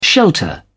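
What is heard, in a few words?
"shelter", British English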